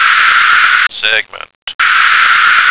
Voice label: "segment", American